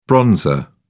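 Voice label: "bronzer", British English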